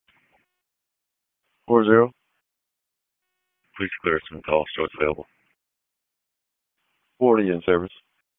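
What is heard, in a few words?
EMS Dispatch; Wake; p25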